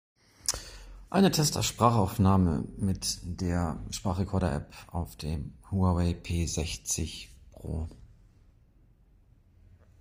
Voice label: Huawei P60 Pro Test Sprachaufnahme

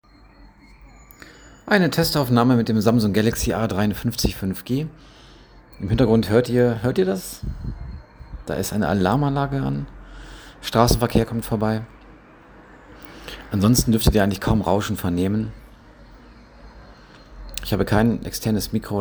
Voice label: Testaufnahme Samsung Galaxy A53

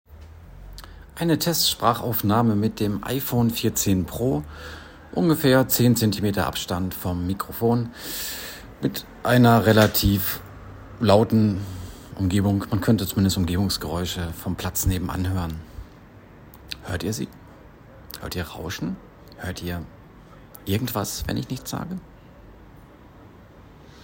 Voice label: iPhone 14 Pro Test Testaufnahme

